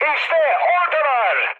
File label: enemy detected, mood high, speech tur; War Thunder